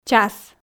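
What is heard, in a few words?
"час", Komi, female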